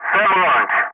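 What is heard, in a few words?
"sam launch", English; Ka-50; Wingman Messages; DCS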